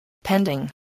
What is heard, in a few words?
"pending", English, voice